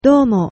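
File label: thanks, Japanese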